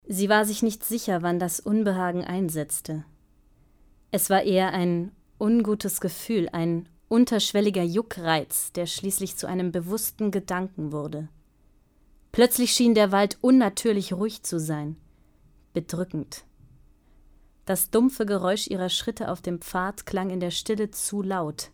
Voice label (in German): Hoerbuch